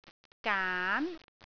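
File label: "gaan2", Cantonese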